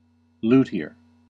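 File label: "luthier", US English; pronunciations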